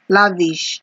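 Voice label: "lavish", Nigerian Pidgin, female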